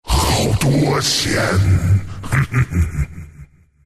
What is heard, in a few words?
lasthit, zh; Doom; Dota 2